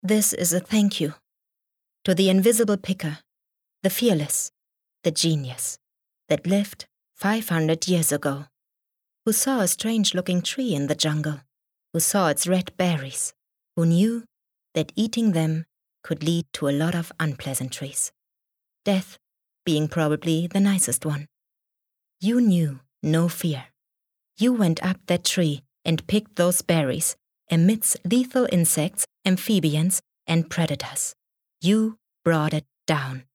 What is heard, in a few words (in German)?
Englisch